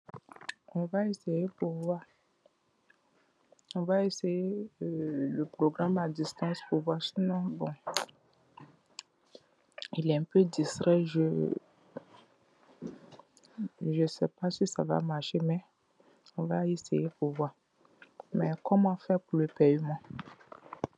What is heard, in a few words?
voice message